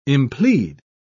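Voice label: "implead", British English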